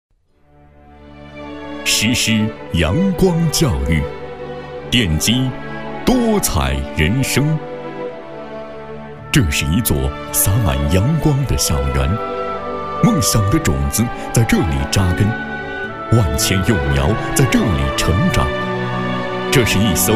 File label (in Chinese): 男 大气自然学校宣传; 横山学校